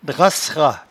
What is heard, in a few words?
"drasserat", patois jura